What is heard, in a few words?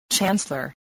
"chancellor", English, voice